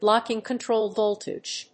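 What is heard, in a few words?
"blocking control voltage", English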